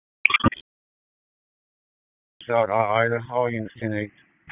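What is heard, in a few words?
Sheriff's Office Dispatch 1, p25; wake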